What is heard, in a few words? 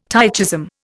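"tychism", Indian English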